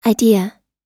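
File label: "idea", English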